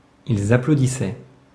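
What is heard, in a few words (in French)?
"ils applaudissaient", French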